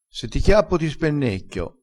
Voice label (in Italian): "spennecchia", crespinese